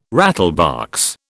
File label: "rattlebox", British